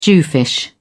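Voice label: "jewfish", British English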